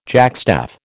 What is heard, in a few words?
"jackstaff", American